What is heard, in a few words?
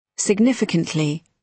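"significantly", British English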